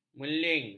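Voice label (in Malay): "meling", Malay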